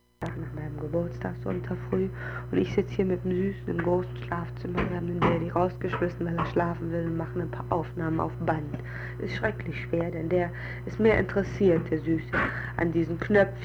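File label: foreign language speaker message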